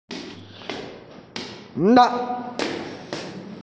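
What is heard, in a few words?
"na2", Mandarin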